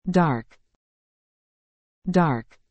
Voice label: "dark", English